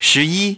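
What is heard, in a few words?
"ten one", English; iOS 4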